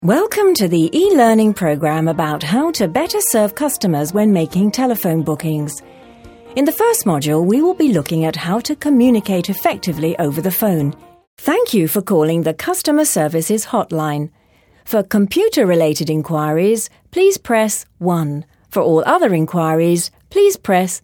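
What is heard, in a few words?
British, narration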